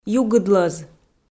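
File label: "югыдлӧз", Komi, female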